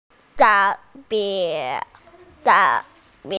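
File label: "xia1 mi5", Mandarin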